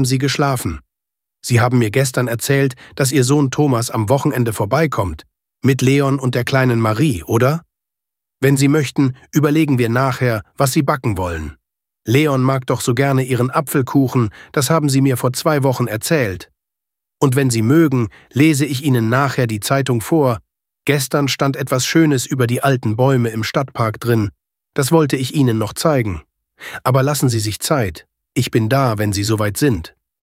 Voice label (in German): robert stimme natural